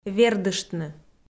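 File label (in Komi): "вердыштны", Komi, female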